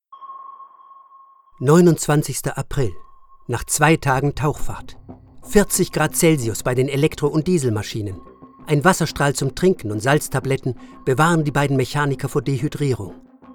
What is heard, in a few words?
male German museum audio guide home studio raw sample